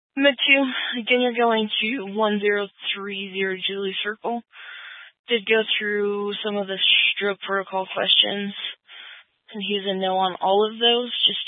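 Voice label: County Fire, Scanner audio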